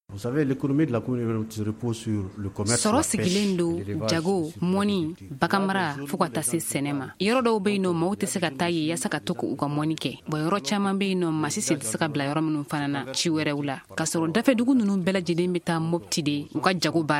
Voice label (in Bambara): magazine bamanankan